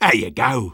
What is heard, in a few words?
Sniper positive vocalization